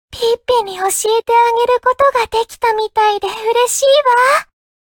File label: levelup ja; cookie run